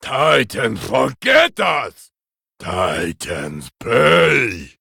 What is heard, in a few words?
male trogg, Play